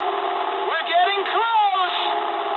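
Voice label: English near waypoint mood medium; speech; War Thunder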